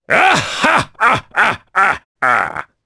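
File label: Happy, Japanese; voices; heroes; Kaulah; kingsraid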